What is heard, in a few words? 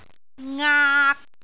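"ngaak3", Cantonese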